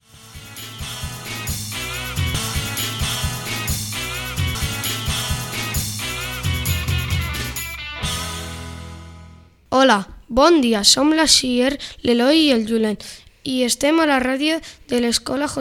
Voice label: radio; radio Josefina Ibanez

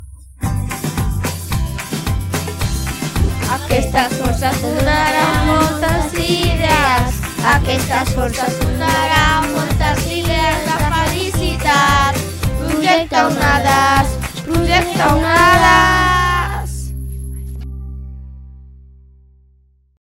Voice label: Anunci Radio; Radio Onades

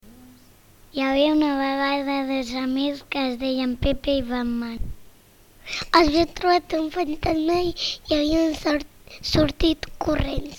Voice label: Audio conte

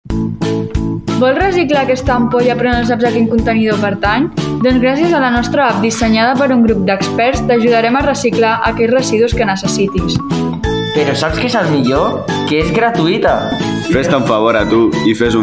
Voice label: Falca de ràdio; Reciclator

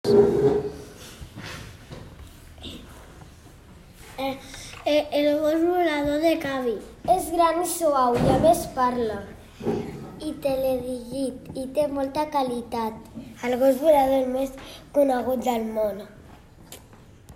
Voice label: Anunci Gos volador; Els alumnes d’Star Wars i Playmobil

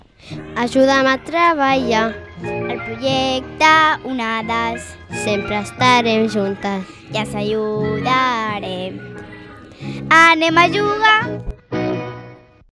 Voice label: Anunci Radio; Radio Onades

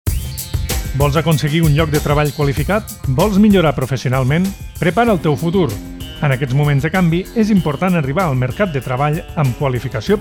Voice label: radio; falset

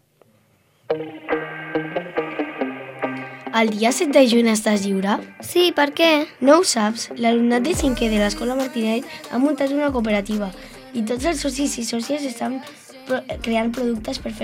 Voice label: FALCA PUBLICITARIA; FALCA PUBLICITARIA COOPERATIVA TEAM

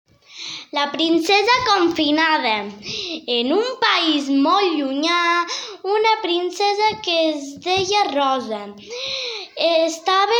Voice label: Audio conte

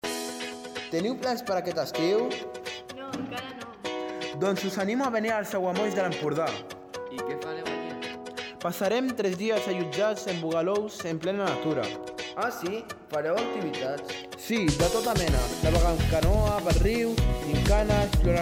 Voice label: falca radiofònica

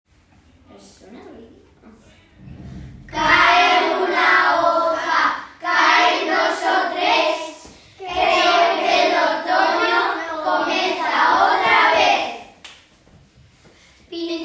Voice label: Poema castellano; la castanyada

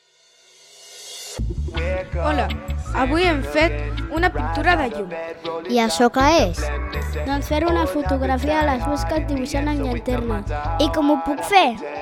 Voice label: Anunci Radio; Radio Onades

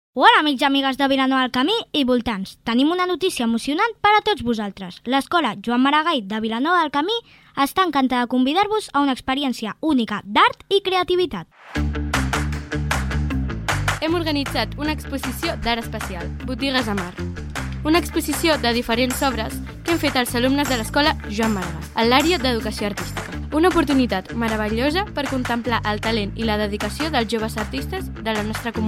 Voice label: Anunci; Botigues amb Art

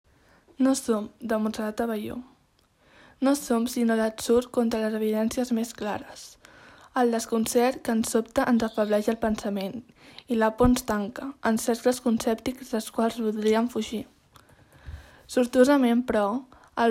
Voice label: Poemes en llengua catalana

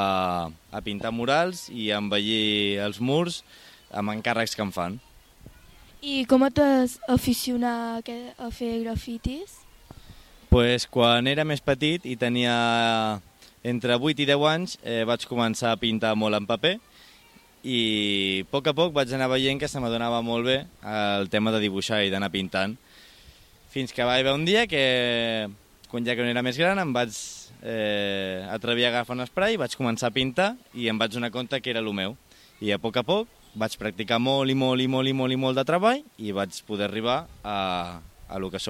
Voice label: Entrevista Grafiter

